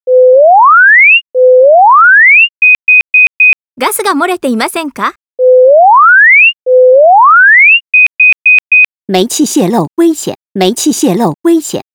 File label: gas warning voice jp cn; 都市ガス警報器